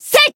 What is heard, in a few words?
attack, jp